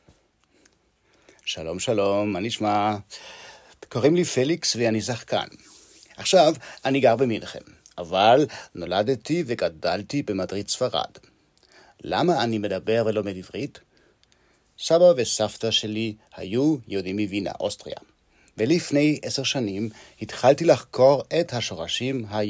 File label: Hebrew text